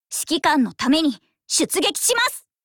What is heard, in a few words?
warcry